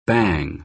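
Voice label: "bang", American English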